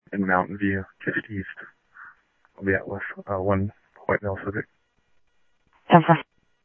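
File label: police department, scanner audio trunk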